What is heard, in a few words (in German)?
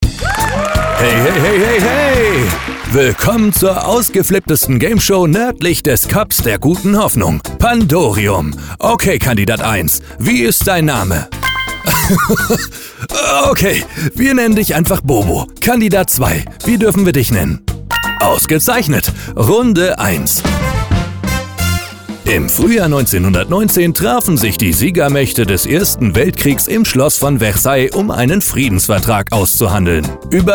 sprachdemo; zetacom; pandorium